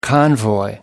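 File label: "convoy", English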